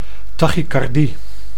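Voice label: "tachycardie", Dutch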